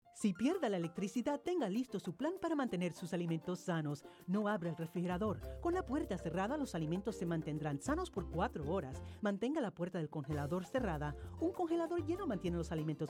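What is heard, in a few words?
SPAN radio, spanish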